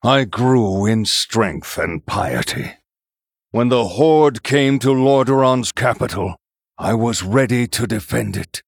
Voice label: Male Human Story; Uther